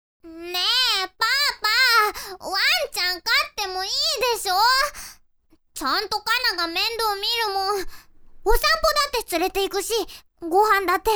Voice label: girl